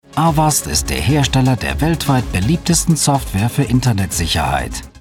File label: Image Film, german